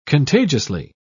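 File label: "contagiously", British English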